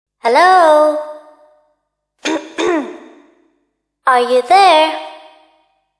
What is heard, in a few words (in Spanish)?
ringtone en ingles; tono de llamada